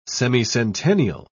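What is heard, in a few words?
"semicentennial", English, British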